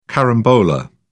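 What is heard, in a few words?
"carambola", English, British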